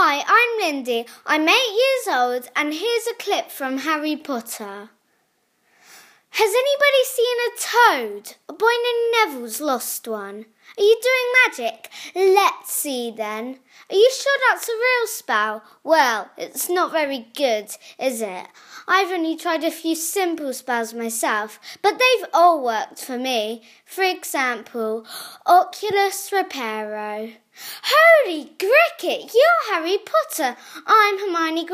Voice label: Voiceover; Harry Potter